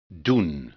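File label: "doen", Dutch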